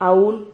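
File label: "aun", Spanish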